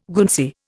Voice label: "Guernsey", Indian